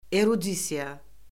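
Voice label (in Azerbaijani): "erudisiya", Azerbaijani; Orfoqrafiya Lüğəti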